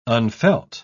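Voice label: "unfelt", English, uk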